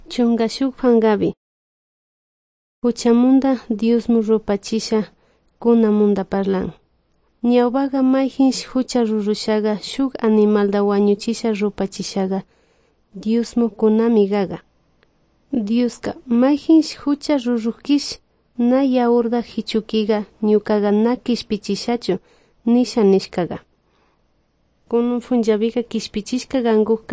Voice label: good news quichua de salasaca